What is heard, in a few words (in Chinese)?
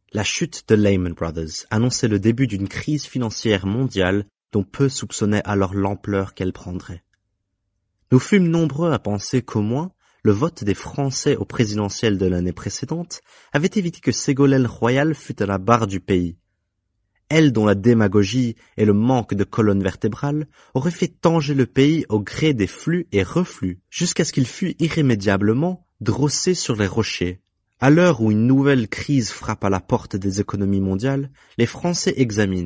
法语男声